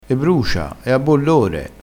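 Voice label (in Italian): "bollore", vocabolario dialettale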